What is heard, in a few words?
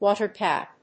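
"Water gap", English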